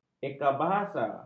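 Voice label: "ekabahasa", Malay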